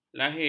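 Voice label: "lahir", Malay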